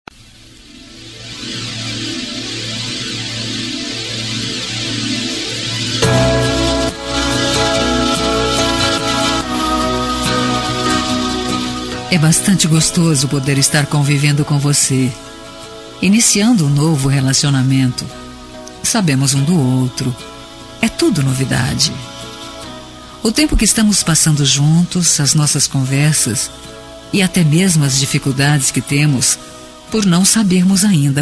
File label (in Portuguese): ROM INICIO NAMORO FEM